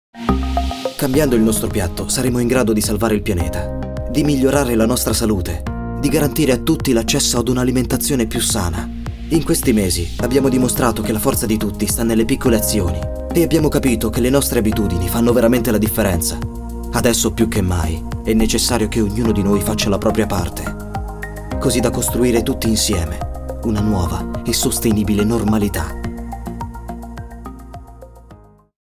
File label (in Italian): Lettura testo ambientale